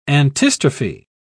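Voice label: "antistrophe", British English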